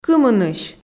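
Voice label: "кымынысь", Komi, female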